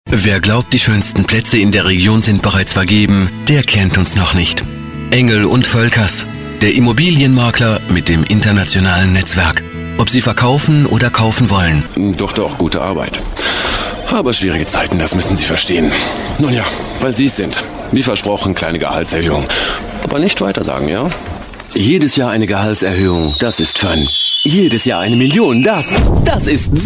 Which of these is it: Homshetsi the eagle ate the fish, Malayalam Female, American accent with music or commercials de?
commercials de